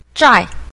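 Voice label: "zhai", Mandarin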